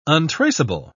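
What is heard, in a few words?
"untraceable", British English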